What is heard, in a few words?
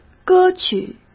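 "歌曲", Mandarin Chinese; hsk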